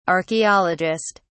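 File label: "archeologist", English, British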